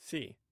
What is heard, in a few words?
"si", Omaha